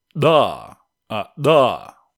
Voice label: Voiced alveolar implosive